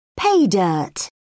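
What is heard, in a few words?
"pay dirt", British English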